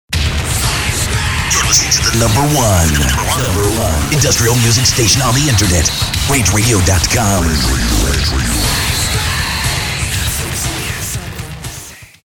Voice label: Promo; Industrial on the Net; RantRadio; RantMedia; Station Promos-Listener Submitted